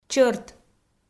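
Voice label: "чӧрт", Komi, female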